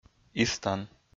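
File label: "истан", Komi, male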